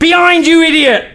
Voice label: Look Out, male; svencoop